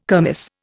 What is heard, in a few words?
"kermis", Indian